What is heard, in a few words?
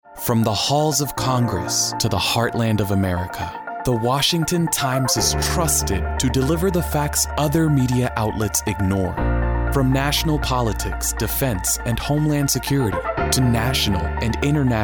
VO Montage